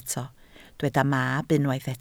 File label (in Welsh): "mab", Welsh